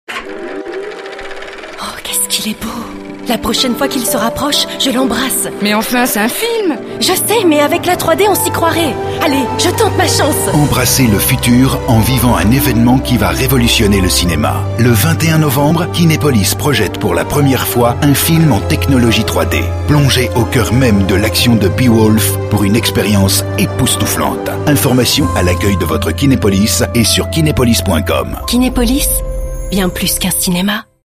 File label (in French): Pub Radio; Kinepolis 3D